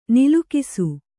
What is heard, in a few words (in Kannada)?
"ನಲುಗಿಸು", Kannada